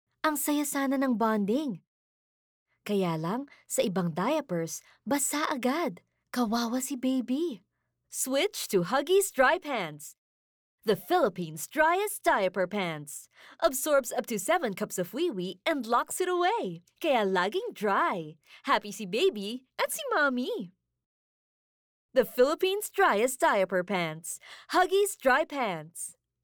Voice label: Taglish (Mother)